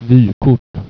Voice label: "vykort" Swedish